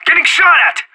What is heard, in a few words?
radio taking fire, anarchist